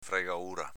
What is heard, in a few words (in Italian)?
"fregaura", dialetto veneto